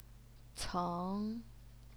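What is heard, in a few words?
"ceng", Mandarin Chinese, second tone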